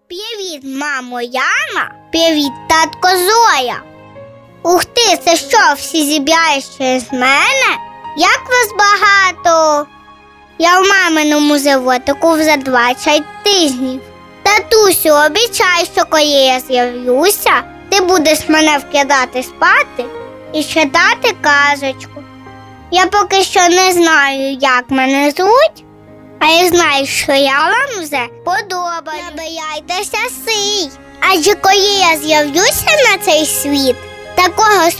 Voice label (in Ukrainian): ukrayinskoyu; avtorska-pisnya